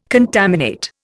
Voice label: "contaminate", Indian

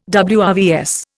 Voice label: "WRVS", Indian

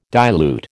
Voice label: "dilute", American

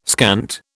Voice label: "scant", British

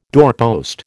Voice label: "doorpost", American

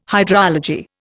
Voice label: "hydrology", Indian

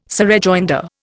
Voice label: "surrejoinder", Indian

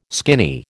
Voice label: "skinny", American

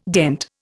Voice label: "dent", Indian

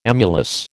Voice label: "emulous", American

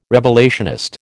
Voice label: "revelationist", American

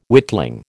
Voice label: "witling", American

